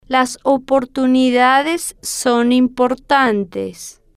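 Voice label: las Oportunidades Son Importantes, Spanish, female, slow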